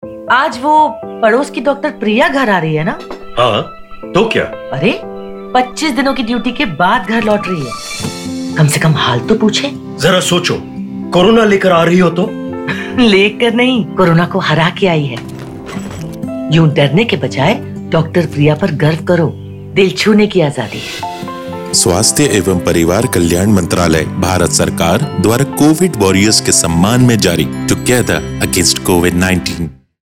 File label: COVID WARRIOR HINDI RADIO SPOT